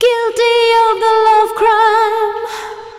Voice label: VOICE GRL, Drum Bass, 160; eJay

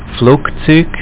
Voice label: airplane, Swiss German; WordMatch

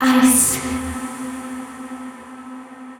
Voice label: VOICE FX, Drum Bass, 160; eJay

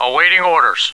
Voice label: awaiting orders; Empire Earth

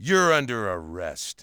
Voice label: under arrest, cop; Urban Chaos

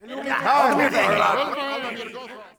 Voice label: men murm; Gamestar

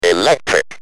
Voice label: "Electric", Speak & Spell UK

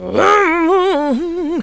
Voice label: "2", female

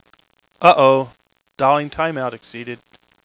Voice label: Dialing Timeout Exceeded Male

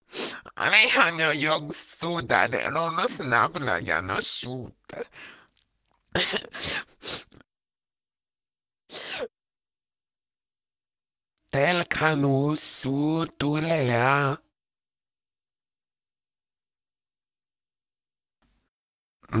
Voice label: talk Comfort

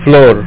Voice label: flower, Spanish; WordMatch

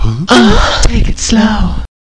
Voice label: take slow

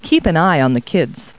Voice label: people, kid; Talking Clip Words

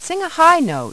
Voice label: Sing High, Voice, Prompts; Opcode Systems

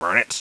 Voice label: unhappy sad (opponents did well); Spades Deluxe 1.6

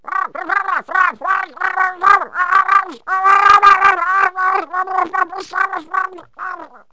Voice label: cursing; Wired for Sound Pro CD; Aristosoft, Inc.; words

